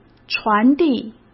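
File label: "传递", Mandarin; chinese flashcards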